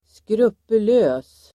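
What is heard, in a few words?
"skrupulös", Swedish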